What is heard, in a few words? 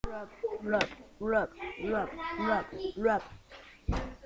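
"rub", spoken word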